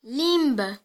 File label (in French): "langue", ro